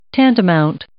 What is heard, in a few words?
"tantamount", English